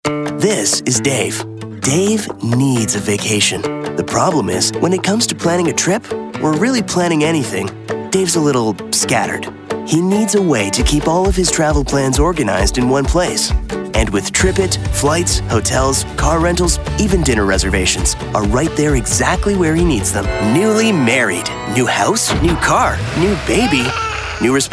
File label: narration men